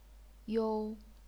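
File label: "you", chinese pinyin, first tone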